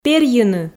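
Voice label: "перйыны", Komi, female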